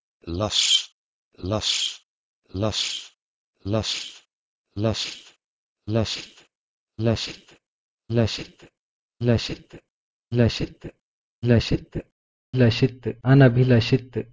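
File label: Proto-Indo-European leh2s to Hindi anabhilashit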